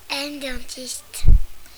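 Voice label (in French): "dentiste", French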